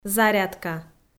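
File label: "зарядка", Komi-Zyrian, female; audio dict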